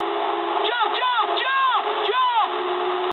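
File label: English bailout mood high; War Thunder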